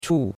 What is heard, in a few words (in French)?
"chu4", chinois; Cours-de-Chinois